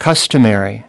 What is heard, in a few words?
"customary", US